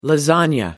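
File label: "lasagna", British English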